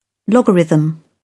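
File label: "logarithm", British English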